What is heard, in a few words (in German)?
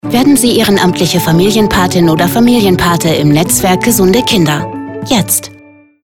Reminder Radiospot; Netzwerk Gesunde Kinder